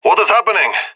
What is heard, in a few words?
scared; Counter Strike; CS:GO; leet epic